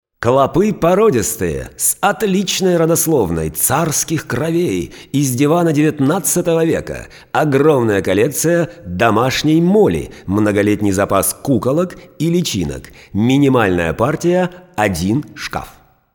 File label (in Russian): Diktori studii Reclama; Bashnya; Клопы породистые, царских кровей...